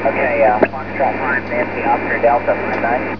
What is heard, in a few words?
17 ssb